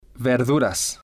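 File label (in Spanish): "verduras", Spanish, male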